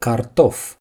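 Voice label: "potato", Romanian; gcompris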